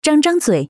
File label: open mouth; Baidu; BDFaceSDK